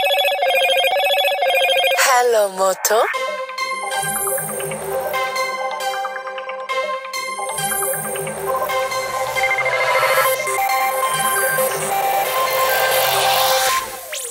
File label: Hello Moto Pick Up The Phone Ringtone; Motorola